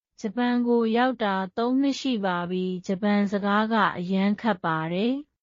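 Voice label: Myanmar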